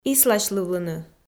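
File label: "исласьлывлыны", Komi, female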